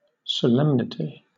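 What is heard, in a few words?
"solemnity", British English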